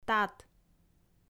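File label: "тат", Komi, female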